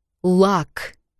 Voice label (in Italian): P in russo